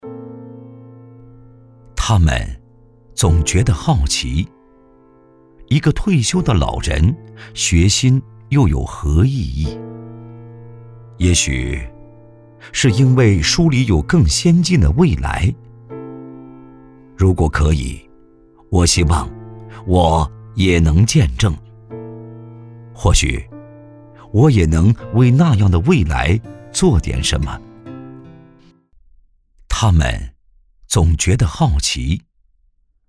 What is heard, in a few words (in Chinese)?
男 走心旁白 退休的老人